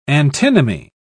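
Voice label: "antinomy", British English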